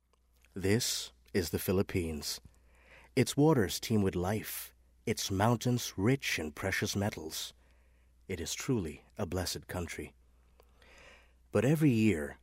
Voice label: English (Informative)